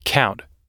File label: "count", us